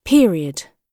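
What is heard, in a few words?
"period", British English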